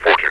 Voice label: "fourteen", metropolice vo; Half Life Alyx